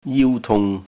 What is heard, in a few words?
"jiu1 tung3", cantonese